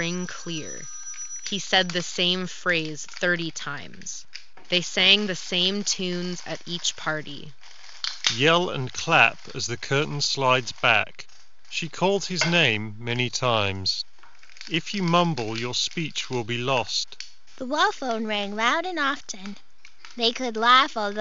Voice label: Steelseries Arctis Nova Pro Wireless Office microphone sample